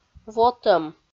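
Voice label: "вотӧм", Komi, female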